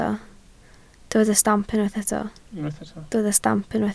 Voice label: "stamp", Welsh